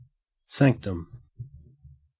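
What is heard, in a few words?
"sanctum", Australian English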